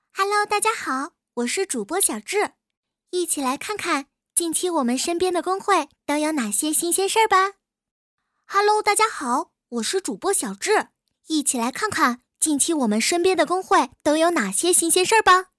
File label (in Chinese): 女童男童